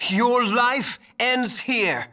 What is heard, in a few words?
Male; baldurs1